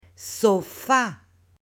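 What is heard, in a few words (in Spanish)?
"sofá", Spanish, female; MUEBLES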